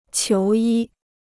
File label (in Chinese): "求医", Chinese